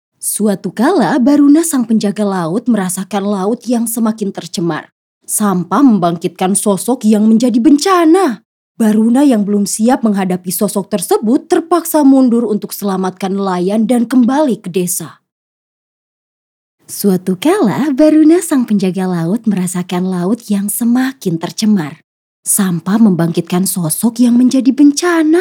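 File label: narration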